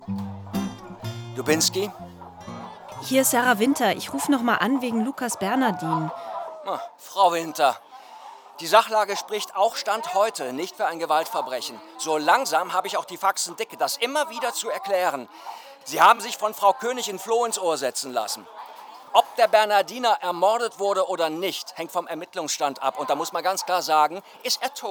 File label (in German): Hörspiel Rolle Kommissar; Dubinski